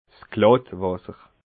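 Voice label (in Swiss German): "glattwasser", Alsatian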